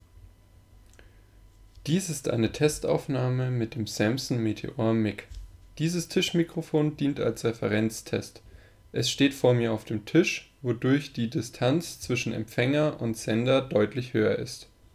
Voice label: Test Sample Samson Mic; monster mission v1 gaming headset